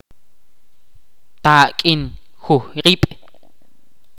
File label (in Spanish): "flecha", Ch'orti'